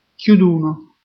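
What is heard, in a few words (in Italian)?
"Chiuduno", Italian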